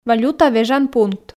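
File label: "валюта вежан пункт", Komi, female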